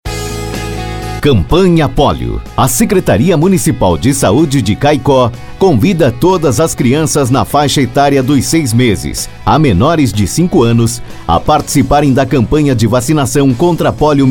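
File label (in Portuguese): VOZ IMPACTO GRAVE vinheta, locutor; para campanha de vacinacao contra a poli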